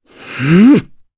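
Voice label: Vox Casting Japanese; Pavel; kings raid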